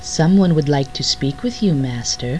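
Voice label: Female voice, "Someone would like to speak with you, Master."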